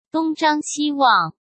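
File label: "东张西望", Mandarin Chinese; hsk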